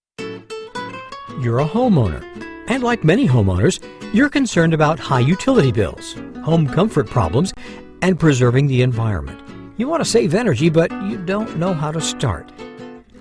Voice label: Explainer Video VO